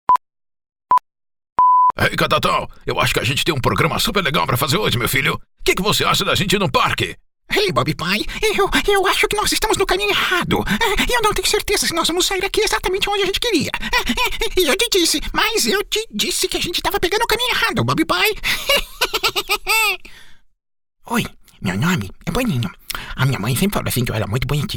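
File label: Brazilian Portuguese, Charge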